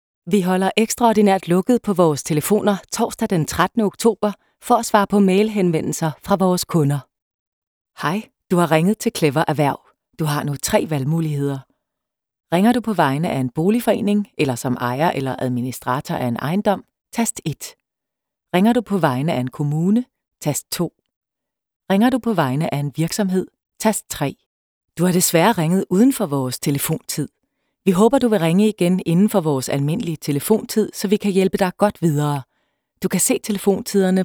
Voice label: IVR